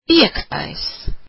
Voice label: "fifth", latvian

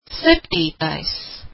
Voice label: "seventh", latvian

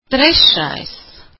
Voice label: "third", latvian

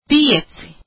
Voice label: "five", latvian

